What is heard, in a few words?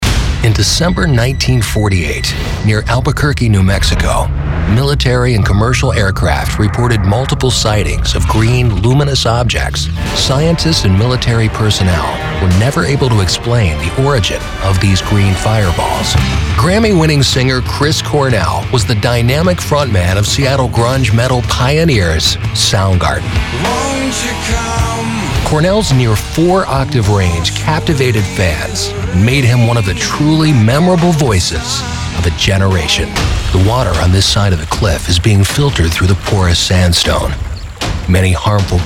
narration men